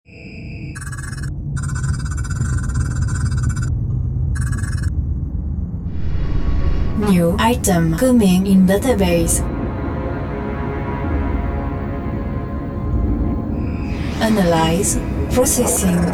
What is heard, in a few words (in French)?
Publicite Web; Zopo